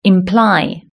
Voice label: "imply", British English

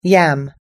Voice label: "yam", US English

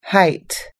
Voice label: "height", us english

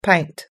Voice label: "pint", US English

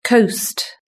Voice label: "coast", british english

